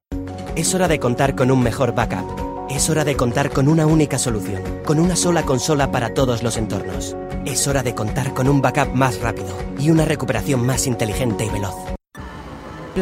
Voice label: Spanish